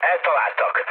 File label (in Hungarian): aircraft damaged mood med, hun; War Thunder